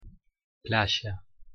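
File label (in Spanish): "playa", Argentine sh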